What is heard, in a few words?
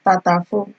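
"tatafo", Nigerian Pidgin, female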